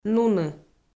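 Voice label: "нуны", Komi, female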